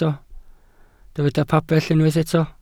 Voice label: "pabell", Welsh